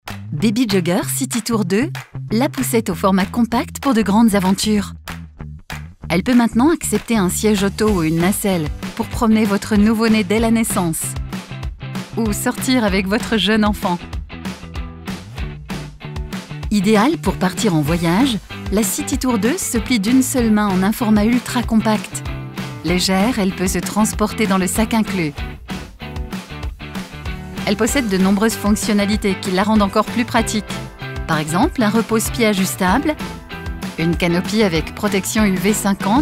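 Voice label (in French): elearning tutoriel, French voice over; baby jogger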